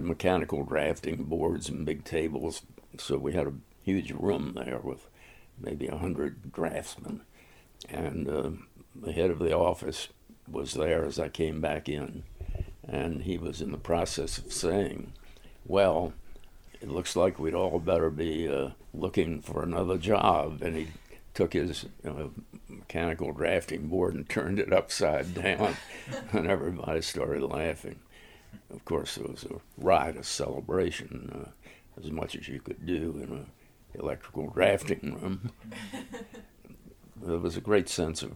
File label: VJ Day Announcement at Naval Yard